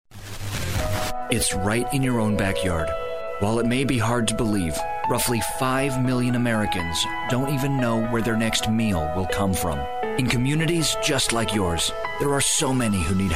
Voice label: VO Male